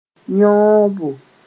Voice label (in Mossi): "yõobo", moore